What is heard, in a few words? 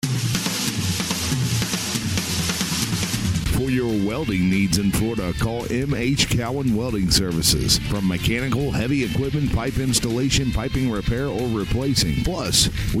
male commercial